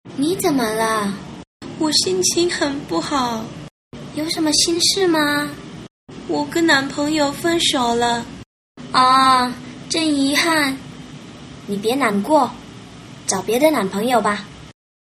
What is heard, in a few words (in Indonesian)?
percakapan, mandarin